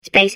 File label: babble; Portal 2